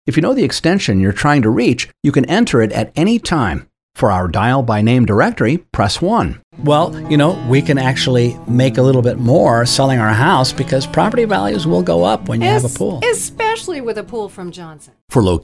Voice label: IVR

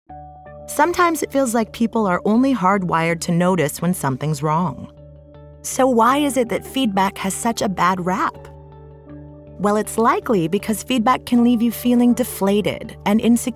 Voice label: Narration

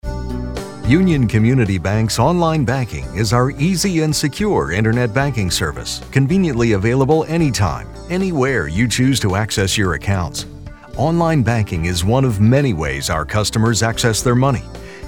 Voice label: on hold IVR

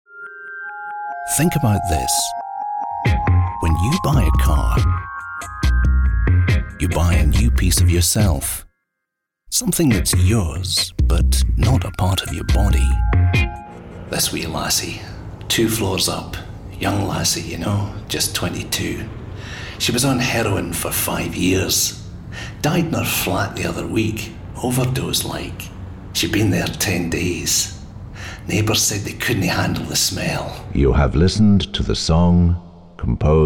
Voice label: voiceover

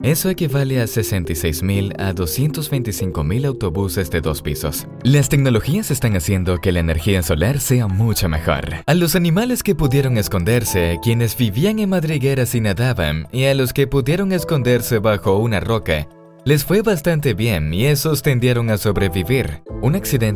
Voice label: E-Learning and Documentary style

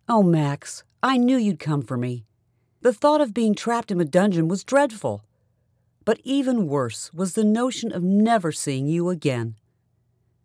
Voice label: Disingenuous Damsel

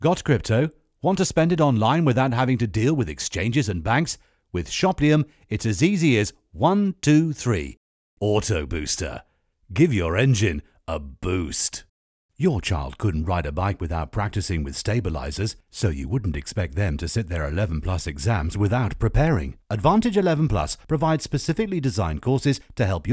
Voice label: Dry Voice

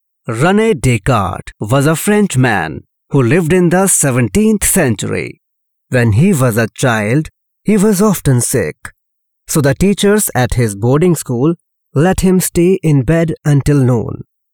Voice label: English Male

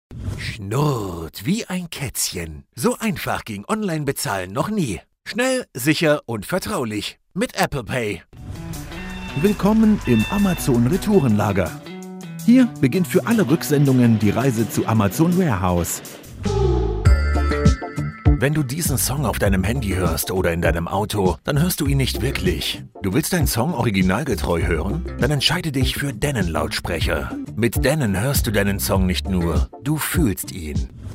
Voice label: Voice Over

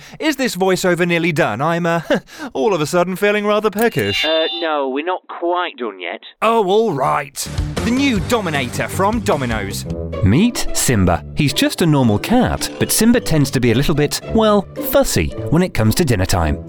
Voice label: Commercial Reel

